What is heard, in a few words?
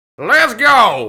Redneck - Lets Go; blackjack; Intro Voices